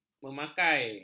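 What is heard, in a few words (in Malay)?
"memakai", Malay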